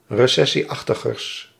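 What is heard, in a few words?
"recessieachtigers", Dutch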